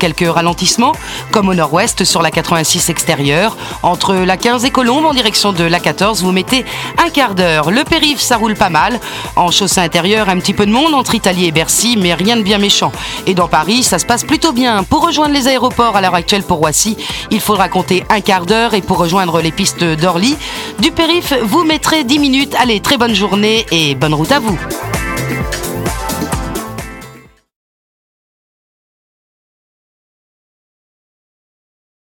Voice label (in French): Animateur radio météo traffic